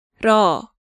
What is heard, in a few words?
"leo", Korean